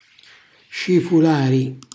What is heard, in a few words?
"scifulari", Calabrese; cucina calabrese